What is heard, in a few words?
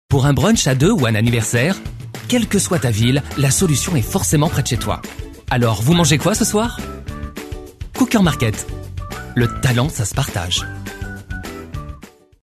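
French, male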